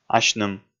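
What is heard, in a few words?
"асьным", Komi, male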